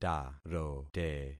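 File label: "tarote", concatenated syllables; Affixing experiment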